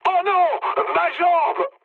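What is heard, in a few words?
French damaged pilot mood med; War Thunder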